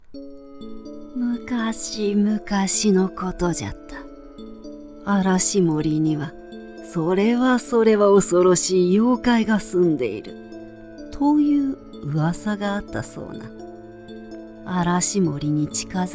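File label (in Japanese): ボイスサンプル（ホラー風）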